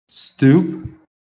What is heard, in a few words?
"stoop", phonetic